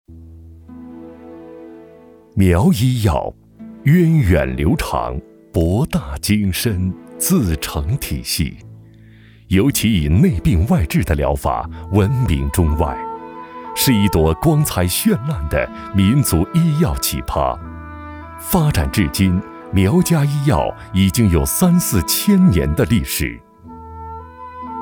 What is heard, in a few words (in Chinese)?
男 非遗专题; 苗医药